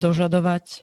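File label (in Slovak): "dožadovať", Slovak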